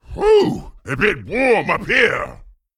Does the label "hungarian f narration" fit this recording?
No